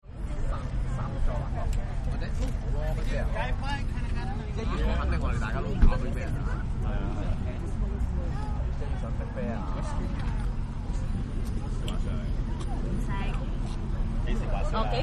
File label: Chinatown, Bus, Stop-Asian, Female And Male Having A Conversation; About Skiiing; Transportation Sound Effects